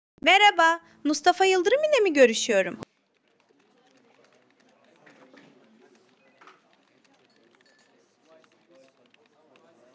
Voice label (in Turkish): Yapay Zeka Arama, Görüşme Kaydı